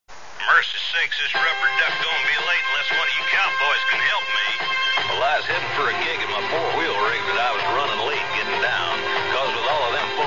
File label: midland cb commercial